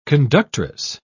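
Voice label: "conductress", British English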